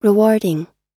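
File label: "rewarding", US English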